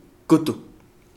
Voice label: leech, Kapingamarangi